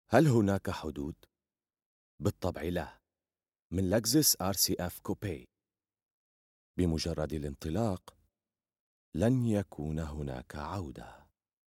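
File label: arabic syrian male commercial; voice demos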